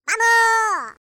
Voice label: Victory, voices, es; Default es